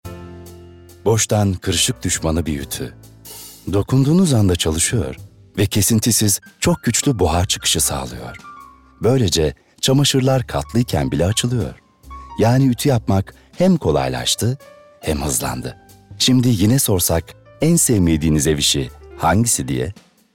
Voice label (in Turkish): türkçe seslendirme